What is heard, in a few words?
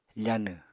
"fanw", Apatani